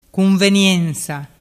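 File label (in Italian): "cunveniensa", Vernazzese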